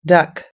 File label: "duck", us-english